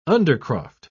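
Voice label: "undercroft", British English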